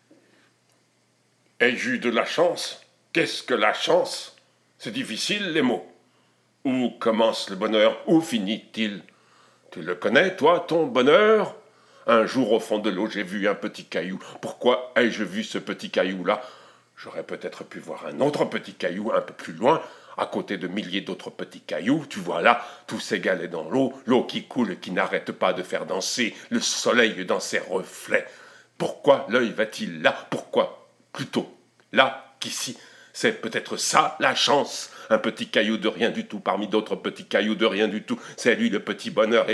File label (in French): Rap, Poesies; Petit cailloux